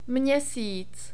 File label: "mesic", Czech